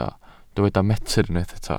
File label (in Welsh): "metr", Welsh